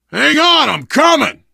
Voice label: to the rescue, survivor voice biker; source engine; left 4 dead 2